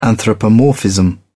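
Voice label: "anthropomorphism", English, British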